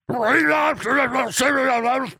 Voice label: complain